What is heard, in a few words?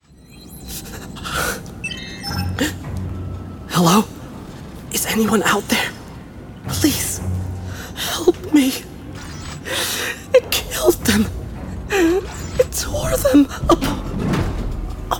American Accent Character; standard us | character